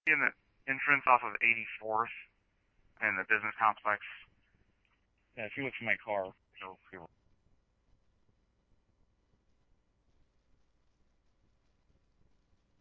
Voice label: scanner, Scottsdale Police

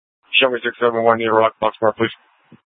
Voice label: Sheriff, scanner P25; Shrf Lakes

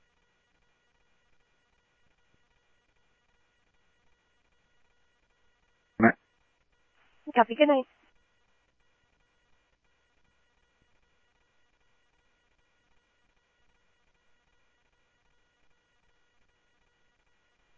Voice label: Scottsdale Police, scanner

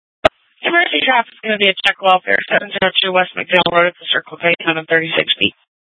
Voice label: Police Dispatch, scanner; Phoenix; 700 Mountain View Precinct

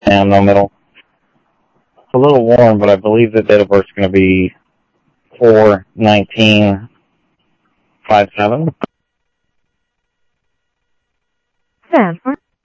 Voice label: Info Sheriff Common, scanner audio trunk; MCSO - Info Sheriff - Common; ThompsonPeakScot

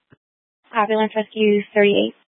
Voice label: Fire Dispatch

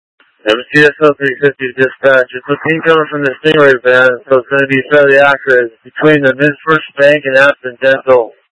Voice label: Mutual Aid-Intersystem Interop B, scanner

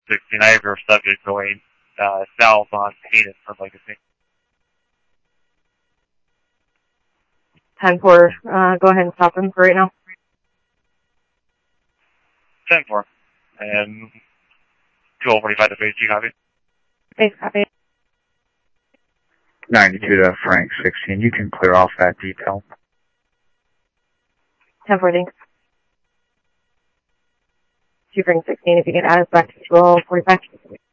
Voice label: PD Police, scanner audio

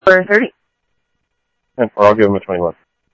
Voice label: Sheriff, scanner audio; MCSO - EAST Sheriff-EastCnty; South Scottsdale

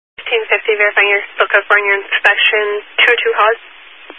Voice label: Highway Patrol, Phoenix Metro East Area, scanner

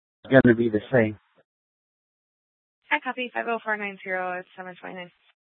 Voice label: Dispatch, scanner

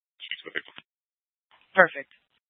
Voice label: Scottsdale Police, Simulcast

